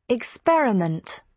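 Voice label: "experiment", British English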